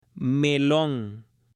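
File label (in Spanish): "MELON", Spanish; ALIMENTOS; AppLenguaje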